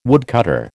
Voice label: "woodcutter", American